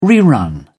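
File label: "rerun", British English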